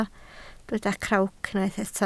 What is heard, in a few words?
"crawc", Welsh